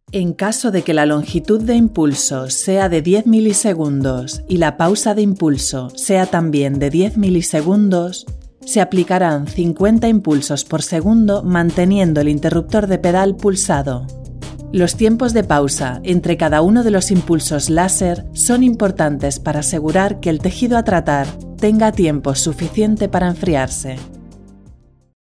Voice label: Spanish Castilian CORPORATE ELEARNING INFORMATIVE NEUTRAL